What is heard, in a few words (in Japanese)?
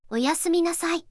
四国めたん（あまあま） おやすみなさい